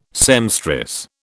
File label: "seamstress", British